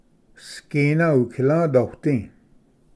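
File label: "sgina ukilvdoidi", Cherokee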